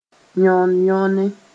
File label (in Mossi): "yõn-yõne", moore